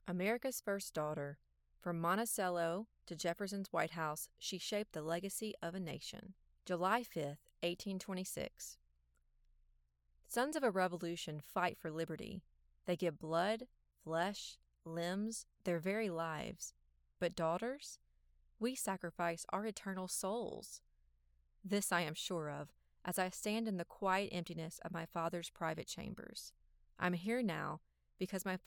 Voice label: VO Sample Nonfiction

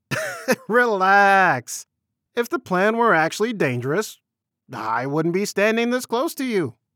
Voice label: Dry Humor; The Witty Strategist

